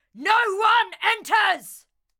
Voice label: Ranged Female Chokepoint Line, dialogue